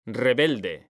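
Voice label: "rebelde", Spanish, male; mekanta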